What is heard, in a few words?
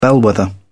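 "bellwether", British English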